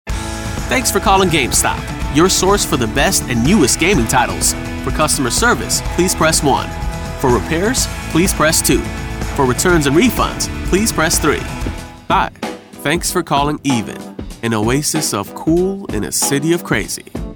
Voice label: IVR American man